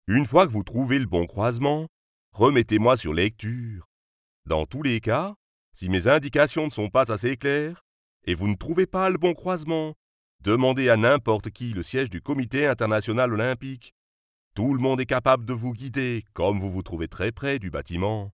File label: Audioguide Swiss French Accent; Lausanne